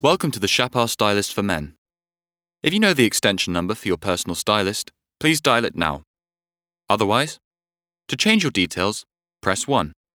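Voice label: Male corporate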